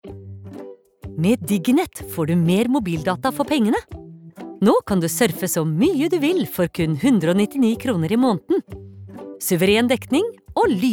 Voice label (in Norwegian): no reklame